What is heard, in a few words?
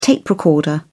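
"tape recorder", British English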